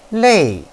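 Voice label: "lei4", Chinese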